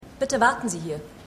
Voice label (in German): Empfangsdame; The Fall